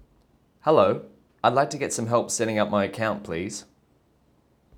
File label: clean support call